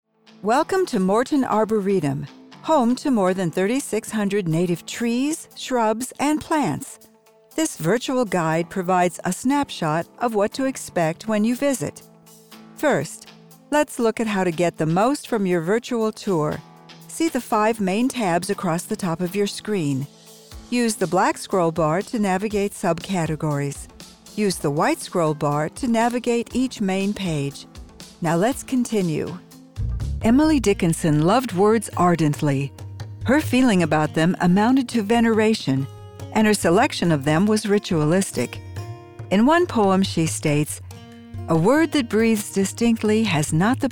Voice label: NARRATION